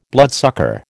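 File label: "bloodsucker", American English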